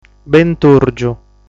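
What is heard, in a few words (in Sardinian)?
"Bentùrgiu", Sardinian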